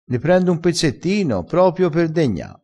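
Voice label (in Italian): "degna", dialettale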